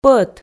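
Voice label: "пӧт", Komi, female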